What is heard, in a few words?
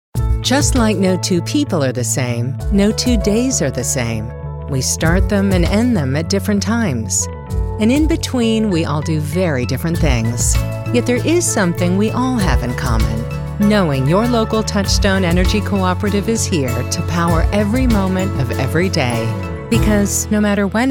Electric Audio Ad; Bon Homme